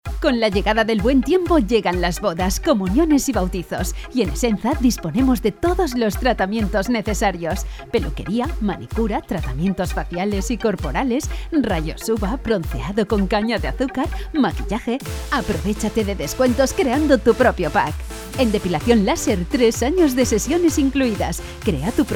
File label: locutora spanish voiceover